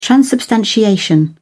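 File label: "transubstantiation", British English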